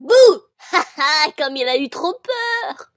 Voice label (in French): Halloween Bouh; Halloween 2010